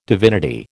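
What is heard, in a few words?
"divinity", American English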